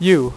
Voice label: "you", dealer's speech; PowerPOKER Demo